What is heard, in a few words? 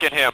Voice Male Radio Get Him